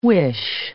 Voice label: "whish", English